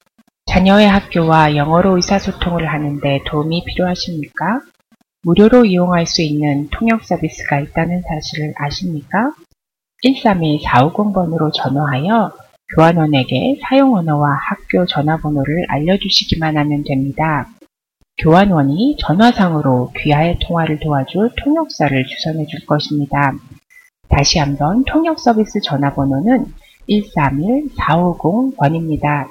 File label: korean; public schools; going to a public school